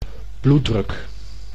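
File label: "bloeddruk", Dutch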